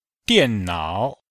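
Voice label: "dian4nao3", Chinese; Cours de Chinois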